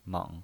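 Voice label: "meng", pinyin voice, Mandarin Chinese, third tone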